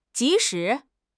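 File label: "ji2 shi2", Mandarin Chinese; HSK4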